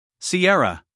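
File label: "Sierra", US English, male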